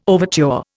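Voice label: "overture", Indian English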